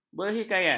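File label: "berhikayat", Malay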